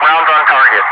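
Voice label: "rounds on target", English speech, Wingman; DCS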